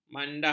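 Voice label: "mandah", Malay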